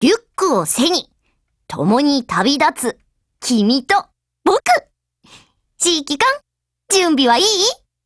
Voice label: JP